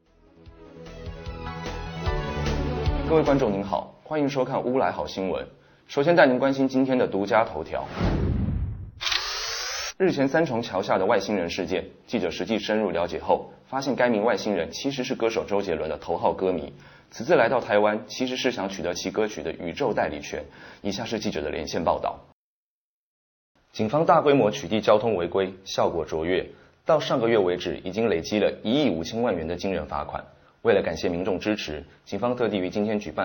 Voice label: news